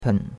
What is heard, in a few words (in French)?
"pen3", chinois; Cours de Chinois